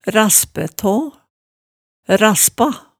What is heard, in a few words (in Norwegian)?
"raspæ taa", Hemsedal